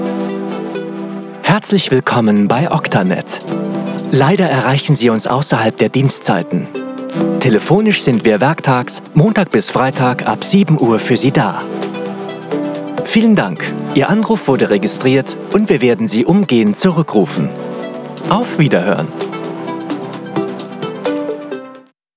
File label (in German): kompetent; Oktanet